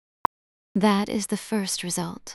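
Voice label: speaker female slow low, first result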